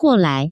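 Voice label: "guolai", Taiwan Mandarin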